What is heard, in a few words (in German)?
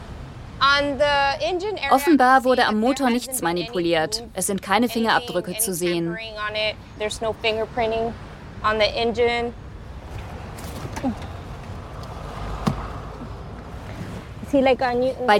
Voice Over Doku